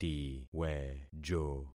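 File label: "tiwejo", concatenated syllables; Affixing experiment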